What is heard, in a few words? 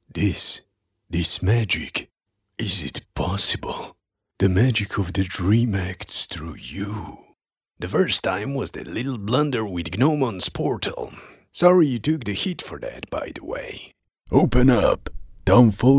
characters cartoon